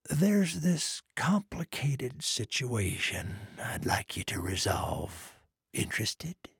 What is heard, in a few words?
trader male neutral quest offer